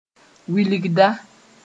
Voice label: "wilgda", Moore

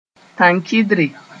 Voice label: "tãn-kɩdre", moore

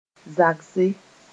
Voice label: "zagse", moore

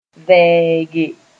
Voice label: "vɛɛge", moore

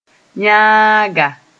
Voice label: "yãaga", moore

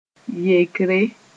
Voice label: "yekre", moore

